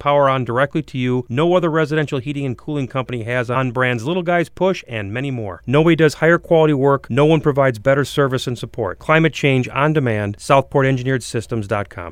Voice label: Commercial; SouthPort